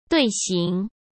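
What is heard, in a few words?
"队形", Mandarin Chinese; hsk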